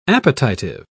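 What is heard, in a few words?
"appetitive", British English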